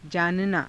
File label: "jaananaa", Hindi; [他動]知る; जानना